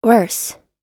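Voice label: "worse", English word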